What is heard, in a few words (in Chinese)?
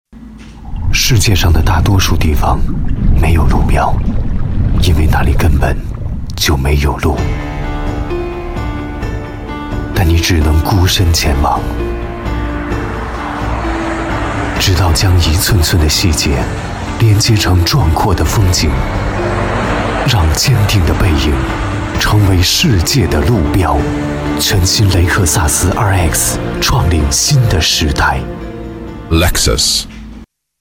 广告 汽车 深情独白